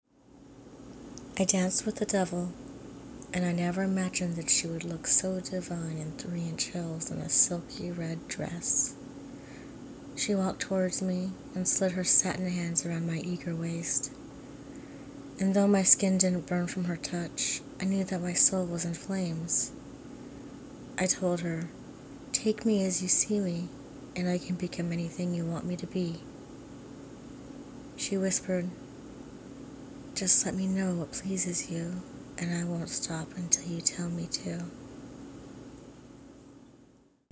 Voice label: poetry